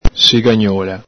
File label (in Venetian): "sigagnola", Veneto